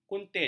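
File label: "kuntit", Malay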